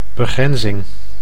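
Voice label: "begrenzing", Dutch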